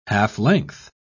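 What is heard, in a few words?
"half-length", British English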